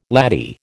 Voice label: "laddie", American

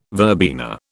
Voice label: "verbena", British

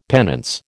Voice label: "penance", American

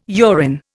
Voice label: "urine", Indian

